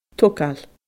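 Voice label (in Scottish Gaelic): "togail", Scottish Gaelic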